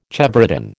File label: "chevrotain", American